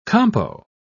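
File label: "compo", British English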